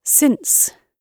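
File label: "since", British English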